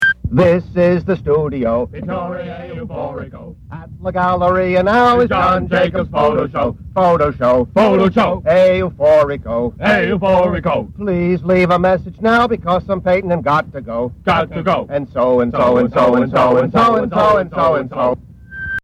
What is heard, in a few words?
Phone Msg